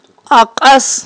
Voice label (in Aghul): "акьас", Aghul